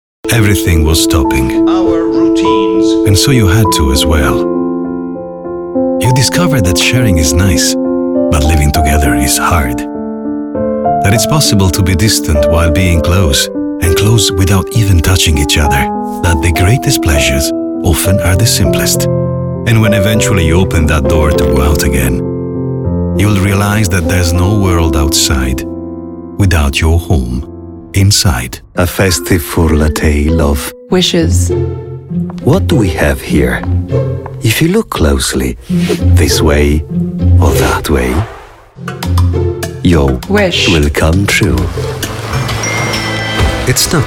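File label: English Commercial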